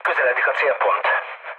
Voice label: near waypoint, mood low, speech hun; War Thunder